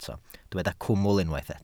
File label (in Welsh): "cwmwl" Welsh